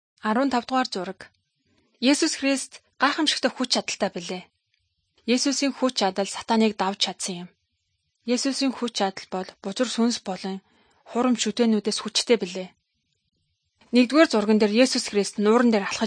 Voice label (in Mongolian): good news mongolian